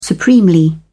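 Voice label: "supremely", British English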